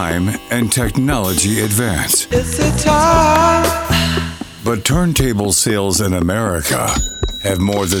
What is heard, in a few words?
radio imaging men classic vinyl profile; siriusxm